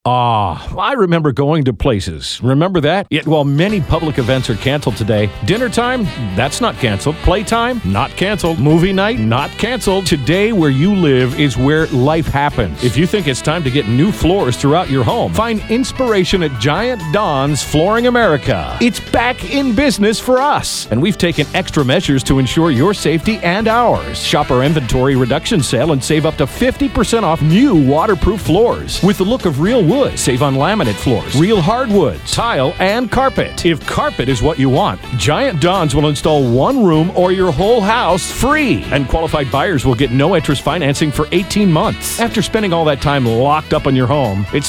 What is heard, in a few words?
Radio Spot